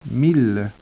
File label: speech, French